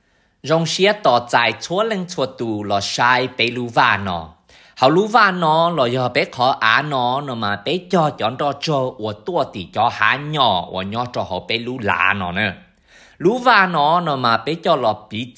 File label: Hmong; Prairieview Garden